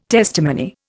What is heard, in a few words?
"testimony", Indian